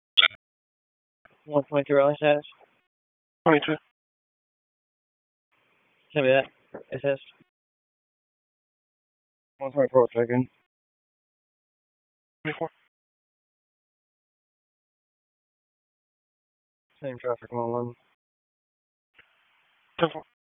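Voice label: Police Dispatch; Raleigh; Southwest District; wake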